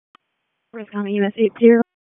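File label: Wake County EMS Dispatch, p25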